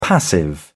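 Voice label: "passive", British English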